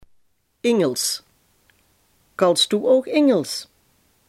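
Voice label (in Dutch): "engels" Limburgish dialect word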